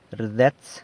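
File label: "rdets", San Lucas Quiaviní Zapotec; is inside out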